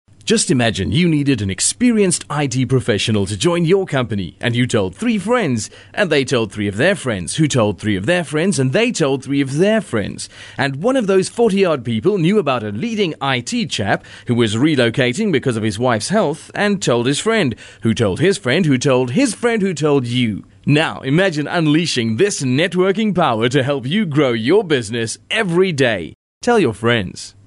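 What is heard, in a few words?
English South African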